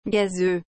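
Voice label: "gazeux", French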